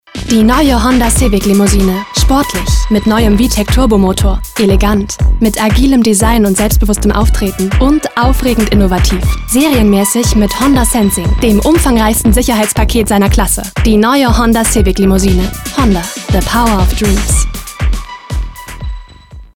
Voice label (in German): Werbung lässig, mitreißend; Honda